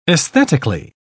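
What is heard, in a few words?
"aesthetically", English, uk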